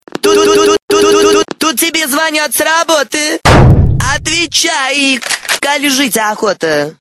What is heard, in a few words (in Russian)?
Tebe zvonyat s raboty otvechai... kol' zhit' okhota! (sekretarsha bossa)